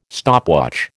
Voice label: "stopwatch", American

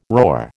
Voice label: "roar", American

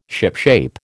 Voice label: "shipshape", American

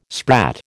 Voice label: "sprat", American

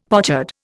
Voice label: "pochard", Indian; Dictionary

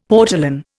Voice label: "portolan", Indian; Dictionary

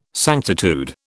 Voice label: "sanctitude", British

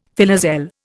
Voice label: "filoselle", Indian

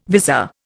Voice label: "vizor", Indian

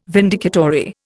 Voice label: "vindicatory", Indian

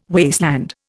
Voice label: "wasteland", Indian

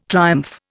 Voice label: "triumph", Indian

